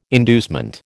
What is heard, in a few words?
"inducement", American